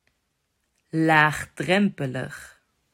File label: "Laagdrempelig", Dutch